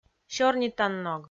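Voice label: "сёрнитанног" Komi, female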